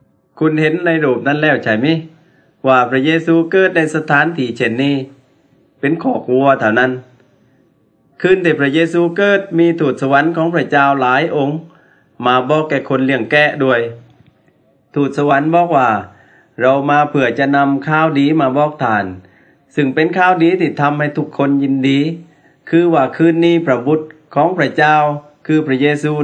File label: words of life creation to christ thai southern